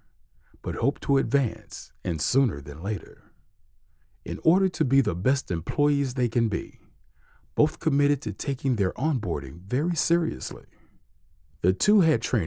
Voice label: eLearning corporate video; suess-style

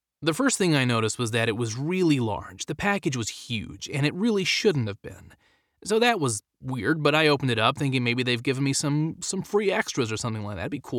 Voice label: Accent Sample American; standard us

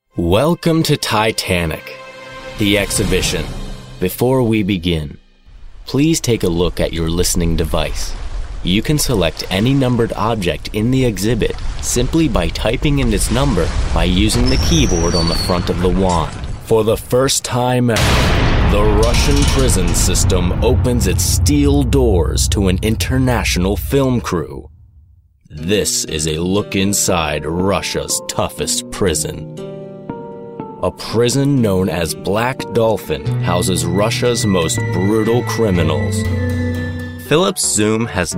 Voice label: Narration

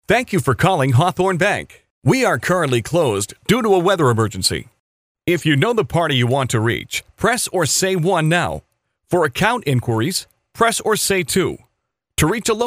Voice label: IVR Phone, en-AU

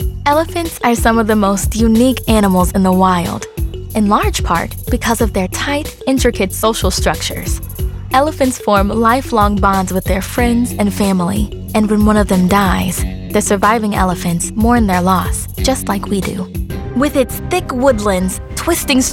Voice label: Narration, en-AU; Narration Reel

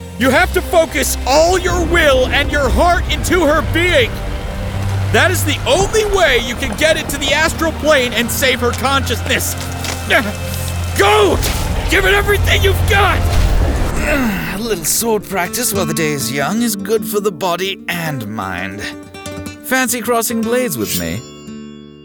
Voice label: Voice Actor; BigMouth Voices

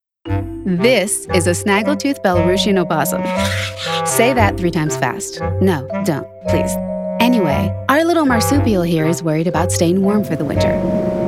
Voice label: Explainer, en-US

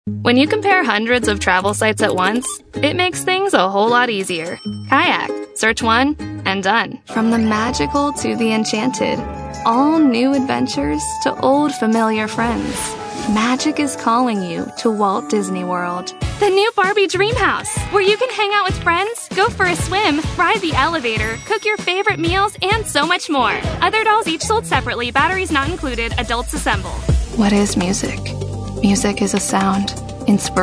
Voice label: Voice Actor

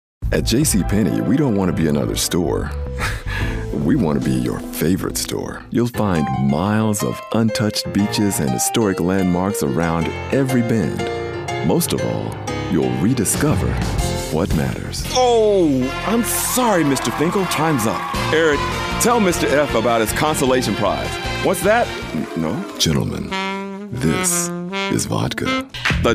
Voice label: Commercial, en-US

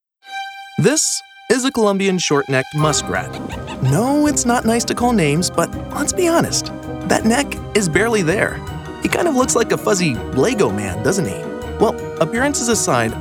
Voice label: Explainer, en-NZ

